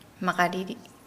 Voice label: "feelcold", Nukuoro

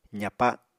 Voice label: "zapa", Apatani

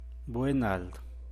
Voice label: "bue'e nald", San Mateo Macuilxochitl Zapotec; invierno

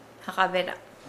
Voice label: "be hot", Nukuoro

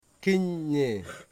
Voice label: "bird", Wamut

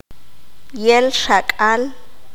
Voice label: "demasiado", Q'anjob'al

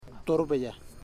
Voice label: sweat, Ipili; Ambua

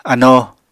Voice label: "anoo", Apatani

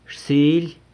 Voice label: "rsily", San Lucas Quiaviní; morning

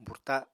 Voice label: "burta", Apatani

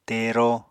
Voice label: "tero", apatani

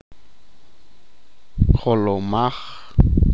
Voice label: head, achi

